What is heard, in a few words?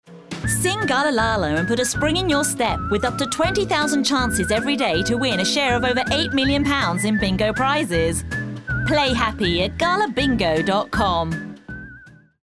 english british others